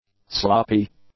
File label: "sloppy", English; adjectives